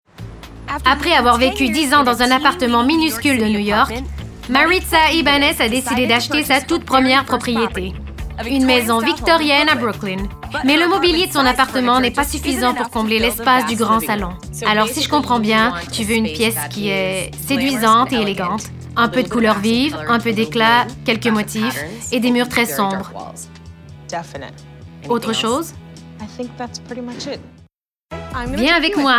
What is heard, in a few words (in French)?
voix surimpression